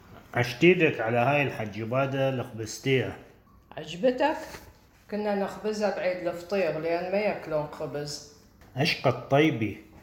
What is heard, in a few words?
Baghdadi Judeo-Arabic; Audio recording 8.1.2 to accompany section on Lesson 8: Giving Directions, Cooking, Immigration Stories; (blessed be your hands!)